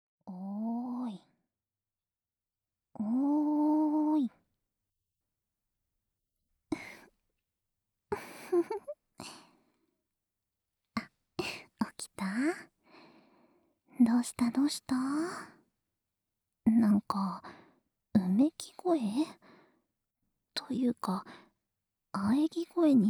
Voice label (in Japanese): ささやき彼女, ASMR